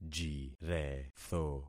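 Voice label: "jiretho", concatenated syllables; Affixing experiment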